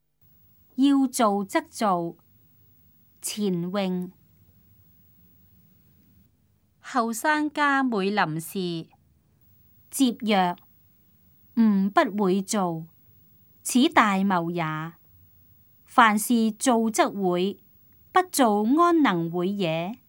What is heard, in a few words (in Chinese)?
cantonese; 要做則做; 粵語